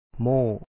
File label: "mo4o", Lao; big talk; ໂມ້